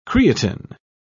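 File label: "creatin", English, British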